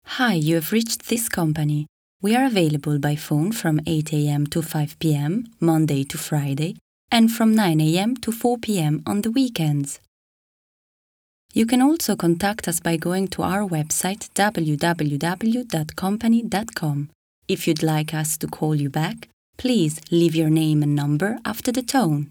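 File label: IT Accented English, Italian; GoLocalise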